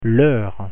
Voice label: "heure", French pronunciation